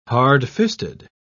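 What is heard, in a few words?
"hardfisted", British English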